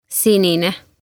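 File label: "Sinine", Estonian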